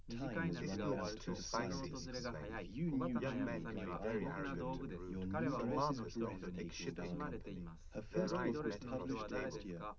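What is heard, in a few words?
male, live recording, 130 ms, 1 m, mix; SiSEC11; underdetermined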